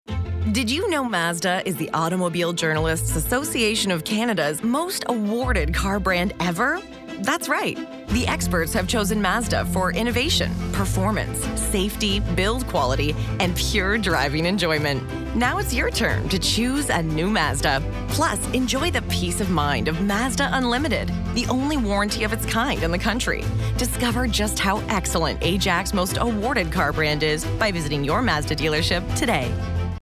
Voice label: female English neutral North American accent commercial; english canadian; mazda